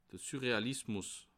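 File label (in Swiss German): "surrealismus", Bas Rhin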